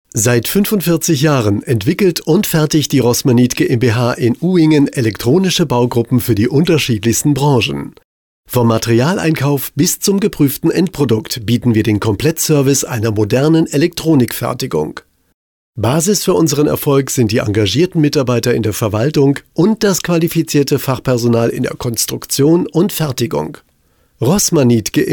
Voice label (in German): TV Spot; Rossmanith GmbH